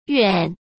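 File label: "怨", Mandarin Chinese; hsk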